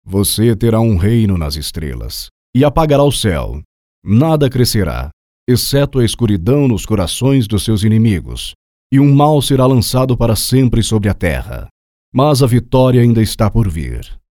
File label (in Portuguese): Character Oracle, PT-BR